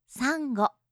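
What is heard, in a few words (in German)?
"sango", japanische Mädchennamen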